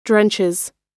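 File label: "drenches", American English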